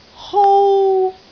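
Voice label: "hou1", Cantonese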